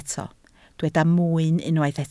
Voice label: "mwyn", Welsh

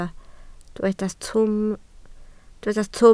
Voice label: "twym", Welsh

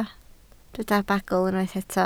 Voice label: "bagl", Welsh

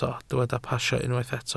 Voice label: "pasio", Welsh

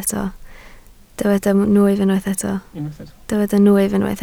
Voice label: "nwyf", Welsh

